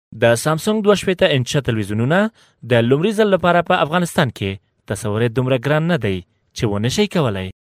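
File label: pashto male voice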